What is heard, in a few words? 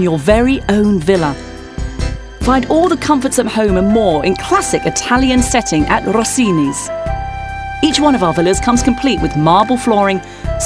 UK English Luxury, Female